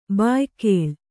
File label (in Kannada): "ಭಯಾಕುಳೆ", Kannada